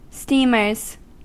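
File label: "steamers", US English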